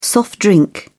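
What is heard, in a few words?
"soft drink", British English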